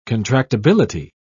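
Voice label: "contractibility", British English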